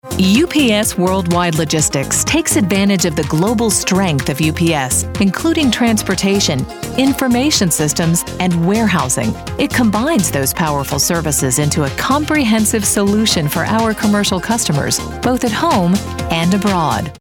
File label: us corp presentation, engelsk-us kvinne